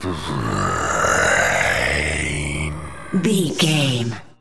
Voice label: zombie voice brains